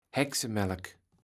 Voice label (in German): "Hexemelk", Platt